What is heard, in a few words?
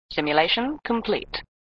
Voice Computer; Computer Simulation Complete; flipper; sttng